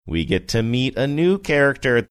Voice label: saying we get to meet new character sing songy

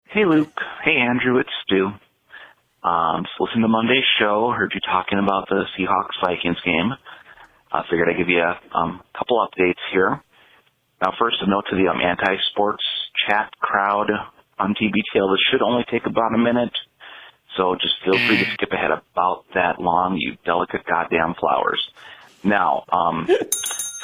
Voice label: voicemail

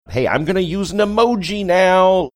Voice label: saying hey im gonna use emoji now sing songy

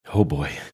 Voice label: quietly saying oh boy

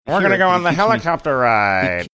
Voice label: saying were gonna go on helicopter ride funny

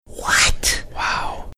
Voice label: whispering what wow

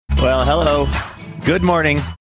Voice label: saying well hello good morning slight frog

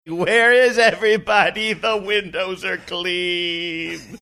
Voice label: saying where is everybody funny

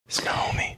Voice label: whispering no homie

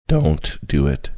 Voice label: quietly saying dont do it

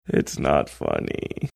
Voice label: quietly saying its not funny

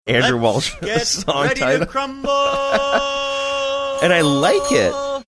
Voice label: saying let's get ready to crumble drawn out

